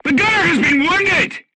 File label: voice message gunlayer wounded, crew tanks portuguese